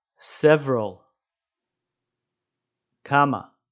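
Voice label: "several" English, "כמה" Hebrew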